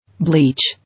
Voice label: "Bleach", English